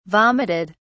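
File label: "vomited", British English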